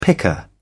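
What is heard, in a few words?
"picker", British English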